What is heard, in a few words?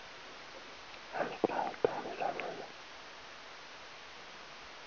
whisper